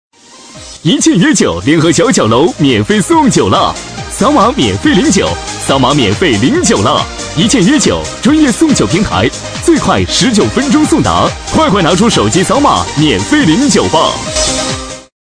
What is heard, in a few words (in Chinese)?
男 高端广告; 一键约酒